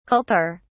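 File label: "kultur", British English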